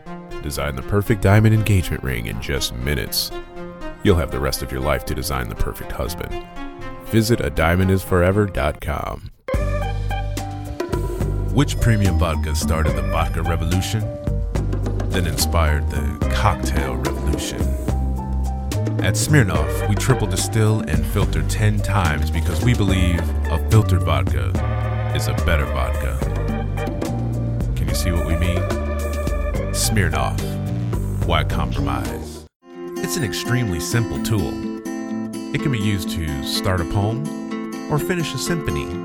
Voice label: Commercials